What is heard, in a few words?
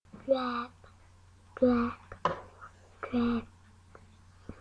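"jab", spoken word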